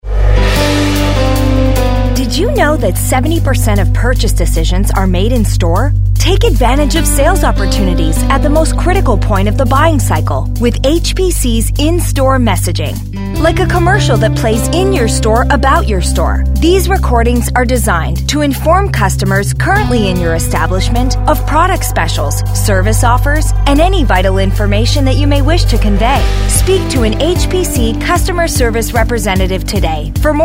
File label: English